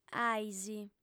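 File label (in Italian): "ais", sarda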